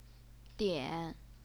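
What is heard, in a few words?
"dian", third tone, Chinese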